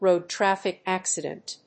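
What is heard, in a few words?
"road traffic accident", English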